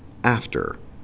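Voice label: "after", Canadian English, male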